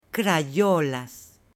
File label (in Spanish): "crayolas", M; JUGUETES; AppLenguaje